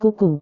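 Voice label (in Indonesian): "kuku", Indonesian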